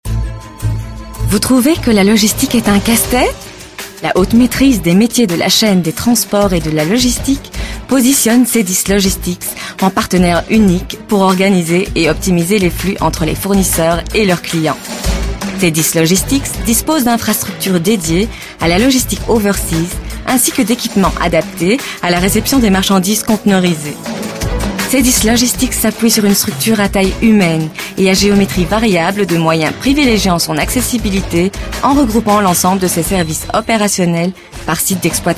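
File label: French, female, corporate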